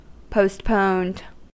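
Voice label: "postponed", US English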